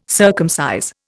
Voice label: "circumcise", Indian